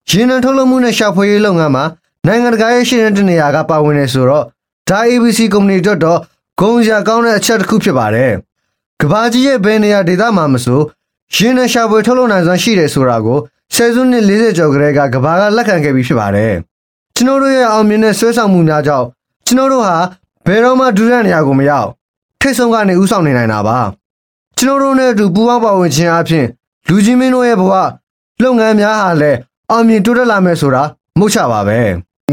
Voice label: Male Burmese Marketing